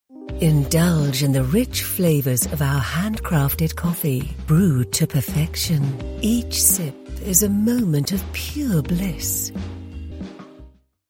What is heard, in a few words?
smooth deep sensual coffee ad